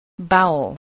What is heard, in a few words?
"bowel", spelling